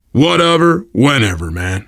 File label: you are welcome, voice, biker; Left 4 Dead 2; survivor